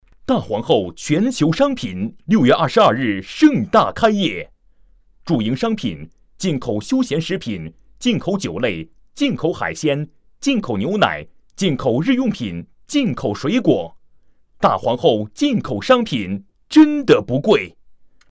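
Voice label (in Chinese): 【广告】男-磁性激情; 大皇后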